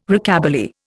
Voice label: "Rockabilly", Indian